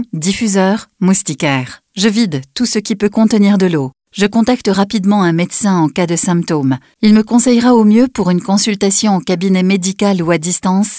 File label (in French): spot radio, dengue; ARS